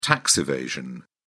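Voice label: "tax evasion", British English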